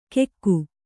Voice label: "ಕೆಕ್ಕು", Kannada

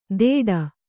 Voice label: "ಥೇಟ್", Kannada

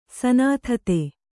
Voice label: "ಸನಾಥತೆ", kannada

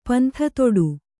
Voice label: "ಪಂಥತೊಡು", Kannada; pantha koḍu

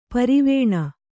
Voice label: "ಪರವಾನೆ", Kannada

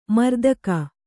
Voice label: "ಮರೆದೆಗೆ", Kannada

